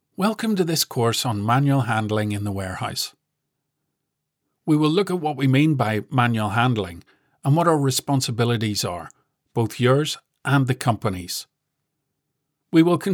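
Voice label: Irish Northern Male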